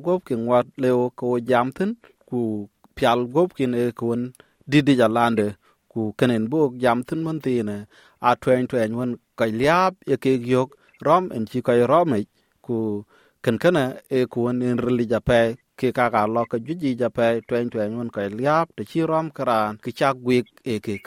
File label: dinka, podcast; SBS Dinka